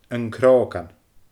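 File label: "An Crògan", Scottish Gaelic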